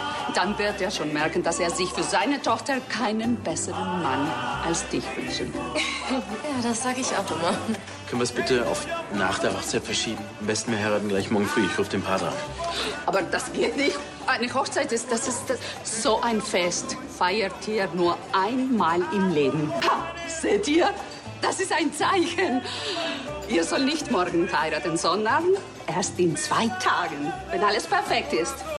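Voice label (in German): Szene Deutsch mit Akzent; TV Rolle; Verbotene Liebe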